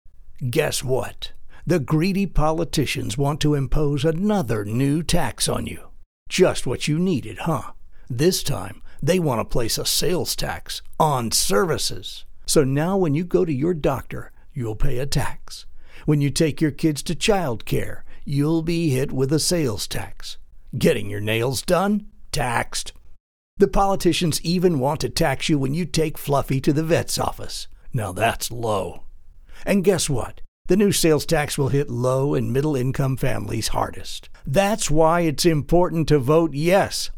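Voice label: Political Ad, male voice; Political Spots; Taxpayers Act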